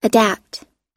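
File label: "carbon", US English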